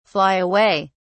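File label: "fly away", British English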